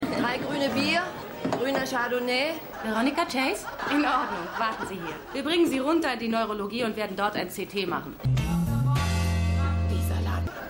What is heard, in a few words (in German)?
Kellnerin Krankenschwester Durchsage; Stimmproben